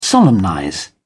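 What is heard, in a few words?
"solemnize", English, British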